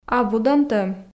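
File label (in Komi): "абу донтӧм", female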